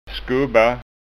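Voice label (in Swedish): "skubba", Scanian dialect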